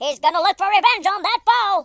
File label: "you'll regret that", US Sports speech